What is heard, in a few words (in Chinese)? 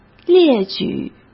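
"列举", Mandarin Chinese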